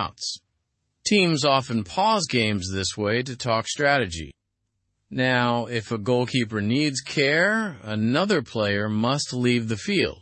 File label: learn english through news lower intermediate; football trials new rule for goalkeeper timeout